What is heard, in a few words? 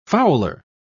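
"fowler", English, British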